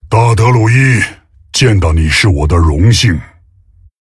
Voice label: Male Tauren; START Hamuul Runetotem; zh

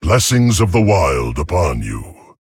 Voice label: Male Tauren Greetings

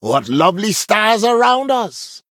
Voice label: Male Dryad Mirror Greetings; en

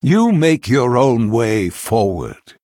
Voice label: Male Human Well Played; en

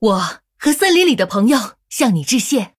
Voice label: Female Human Thanks, zh

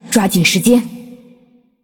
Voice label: Female Ghost TIMER, zh

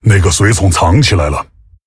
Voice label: Male Tauren ERROR STEALTH, zh

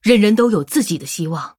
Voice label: Female Human MIRROR START, zh

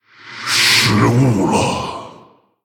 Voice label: Male Orc Oops; That was a miss.; en; 死亡猎手雷克萨（英雄）; 失误 Oops; 失误了。

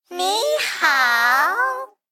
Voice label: Male Mech ERROR STEALTH

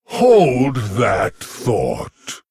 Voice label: Male Lich TRIGGER Deep Freeze; en

